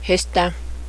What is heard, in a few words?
his food, Cheyenne; hé-stáme